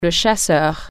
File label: fighter jet, french